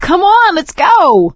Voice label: move on, survivor voice; Left 4 Dead 2; producer

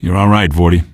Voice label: question, male; Garry's Mod; hl2

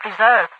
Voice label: "preserve", radiovoice; Garry's Mod; hl2; overwatch

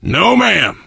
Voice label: initial meeting, survivor voice biker

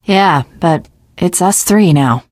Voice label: teengirl, voice; Left 4 Dead 2; l4d1 finale

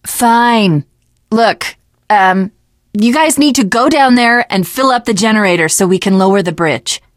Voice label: finale chat, teengirl, voice; Left 4 Dead 2

